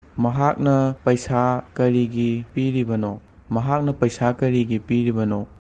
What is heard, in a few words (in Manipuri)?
"Mahakna paisa karigi piribano?", Manipuri; Why did she pay the money?